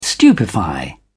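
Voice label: "stupefy", English, uk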